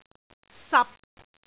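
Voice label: "sap6", Cantonese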